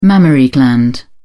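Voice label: "mammary gland", English, uk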